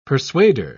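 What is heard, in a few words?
"persuader", British English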